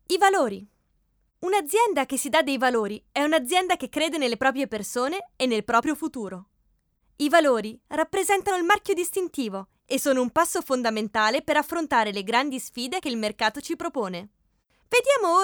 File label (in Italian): Italiano E-Learning